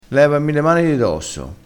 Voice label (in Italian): "dosso", crespinese